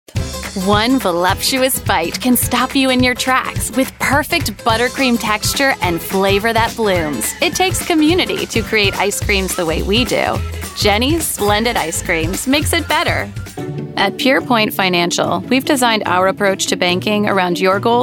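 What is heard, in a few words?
COMMERCIAL, Professional Female Voice Talent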